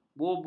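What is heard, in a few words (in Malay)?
"bubu", Malay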